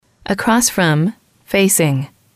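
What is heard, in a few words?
across From Facing, english, female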